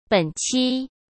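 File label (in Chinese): "本期" Mandarin Chinese; hsk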